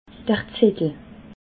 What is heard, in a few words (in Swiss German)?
"zettel", alsace